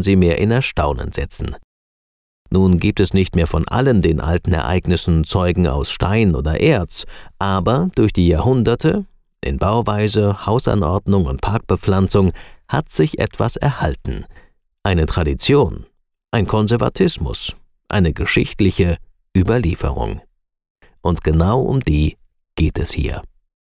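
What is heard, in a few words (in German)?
Reisehörbuch Paris, Sprecher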